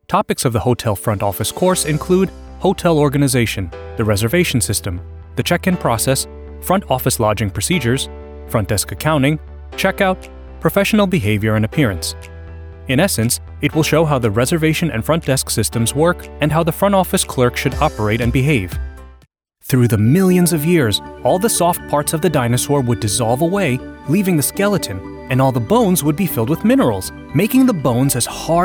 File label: E-Learning Corporate Educational Voice-Over